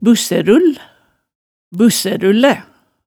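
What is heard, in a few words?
"bussærull, bussærullæ", Hemsedal dialect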